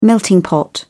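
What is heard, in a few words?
"melting pot", British English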